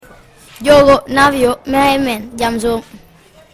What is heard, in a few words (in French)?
Sérère; les langues au senegal